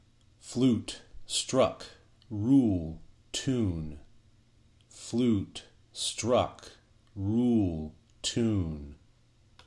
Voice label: flute struck rule tune, pronunciation exercises